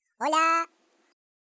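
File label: "Hello", Spanish; hedgewars; Default es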